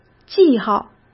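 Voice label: "记号", Mandarin Chinese; chinese flashcards; hsk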